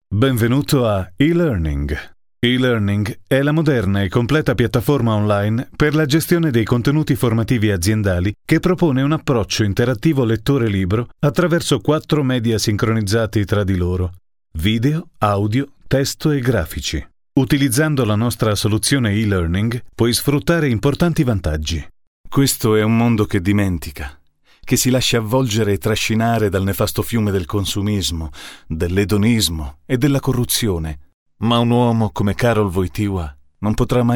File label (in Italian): Elearning present enciclopedia